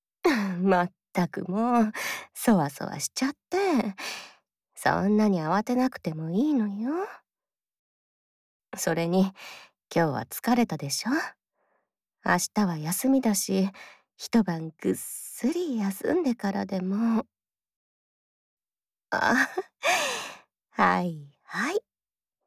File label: Whisper